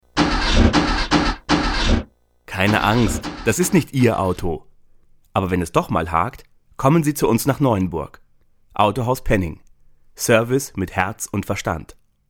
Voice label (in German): Autohauswerbung